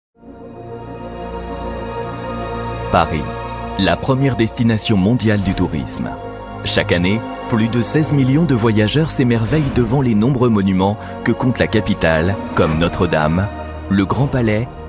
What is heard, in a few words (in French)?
documentary, french voice over; Les toits de Paris